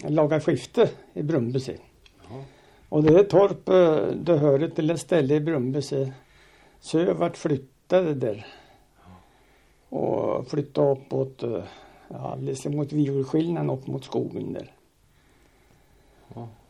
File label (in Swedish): Skuttunge, dialekter; Folkminnen; Svenska sagor